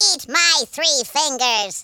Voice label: dragon punch, speech, Alien; Worms3D

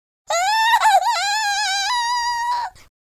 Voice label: scared

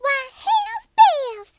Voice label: missed, Southern Belle; speech

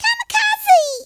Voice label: "kamikaze", Southern Belle; speech

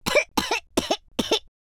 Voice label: idle, London Cabbie; speech

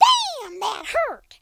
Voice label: "ouch", Cowgirl; speech

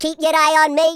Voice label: "you'll regret that", Madchester

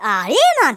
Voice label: missed, Geordie; speech

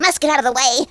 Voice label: "take cover", Conscience; speech

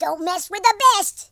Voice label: leave me alone, American Wrestler

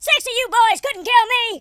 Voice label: first blood, Drill Sergeant; speech

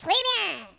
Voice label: "brilliant", French; speech

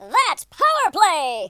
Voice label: "excellent", US Sports speech

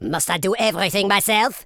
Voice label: "oi nutter", Super Villain speech

